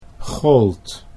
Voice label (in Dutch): "gold", Dutch